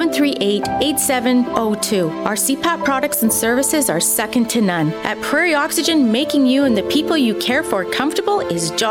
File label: Radio Ad Sleep Apnea